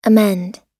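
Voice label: "amend", US English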